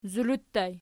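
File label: "zulu" ergative plural, Archi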